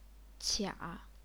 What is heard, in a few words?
"qia3", chinese pinyin